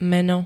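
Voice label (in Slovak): "meno", Slovenské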